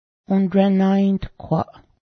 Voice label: church, Cayuga